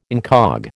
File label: "incog", American